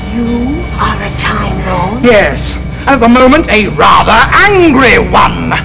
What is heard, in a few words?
angry